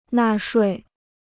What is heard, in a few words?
"纳税", Chinese